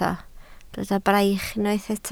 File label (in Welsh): "braich", Welsh